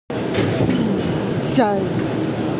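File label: "shu1cai4", Mandarin Chinese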